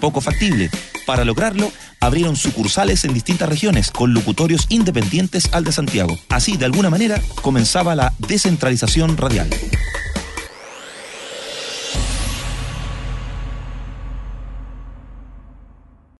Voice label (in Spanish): PODCAST RADIO CADENA